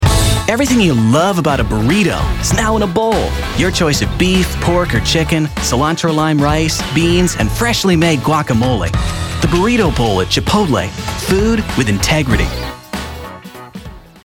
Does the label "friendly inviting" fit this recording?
Yes